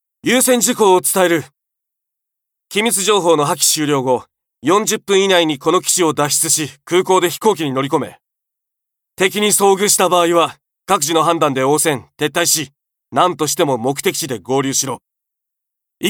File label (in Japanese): 軍の上官